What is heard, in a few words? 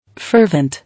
"Fervent", English